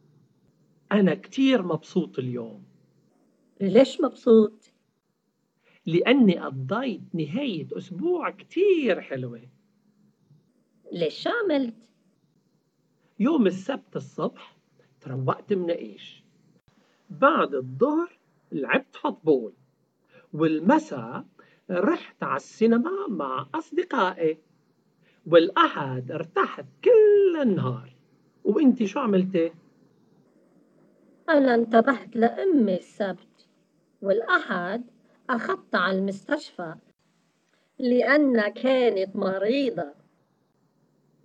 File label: dialogue, Arabic MSA; a complete course in spoken written arabic msa